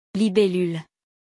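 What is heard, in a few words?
"libellule", French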